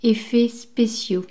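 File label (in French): "effet special", French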